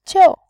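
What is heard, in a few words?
"jiu", Mandarin, fourth tone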